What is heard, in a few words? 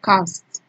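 "cast", Nigerian Pidgin, female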